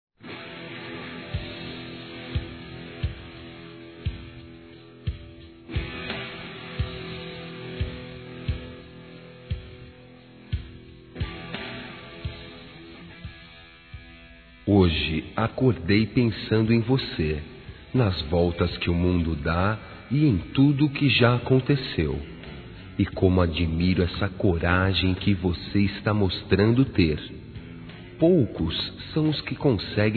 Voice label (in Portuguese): OTIMISMO M; Telemensagens; masc